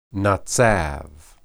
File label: "natsab", Hebrew